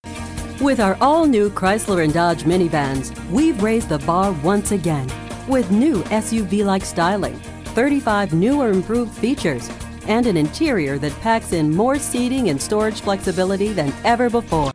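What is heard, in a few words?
Commercial, Engaging, Authentic, Warm Female; Chrysler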